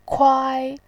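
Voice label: "kuai1", Mandarin Chinese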